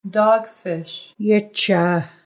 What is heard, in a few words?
"Dog Fish", Nuu-chah-nulth language; seacreatures